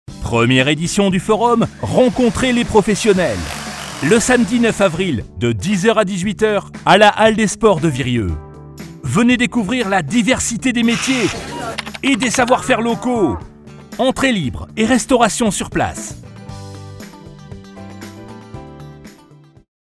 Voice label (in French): voix grave pub radio locale; forumpro